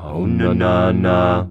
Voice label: AUNANANA, MALES; Best Service; Hyper Dance

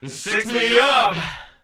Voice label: Male FX Vocals; Houseworx

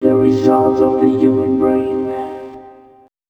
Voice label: male, voice

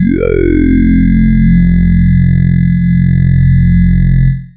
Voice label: FORMANT, Funky Vocals; USB Soundscan vol.18; AKAI; COMPUTER

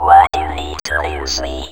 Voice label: NEED USE, Funky Vocals; USB Soundscan vol.18; AKAI; COMPUTER

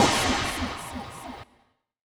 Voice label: VOICE, VOICE-FX

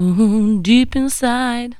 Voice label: vox deep; E Lab; XTc Files of House

